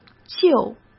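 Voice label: "救", Mandarin Chinese; hsk; chinese-flashcards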